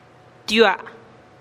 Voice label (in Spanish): "tiuaa" cuarenta, teotitlan